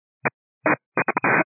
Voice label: 122 925MHz, scanner radio